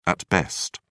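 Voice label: "at best", British English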